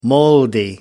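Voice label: "moldy", British English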